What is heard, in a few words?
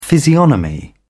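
"physiognomy", British English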